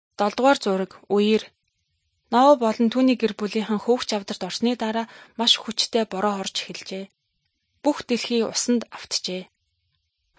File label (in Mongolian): good news mongolian